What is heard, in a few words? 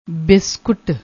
"biscuit", Punjabi